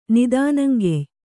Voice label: "ನಿದಾನಂಗೆಯ್", Kannada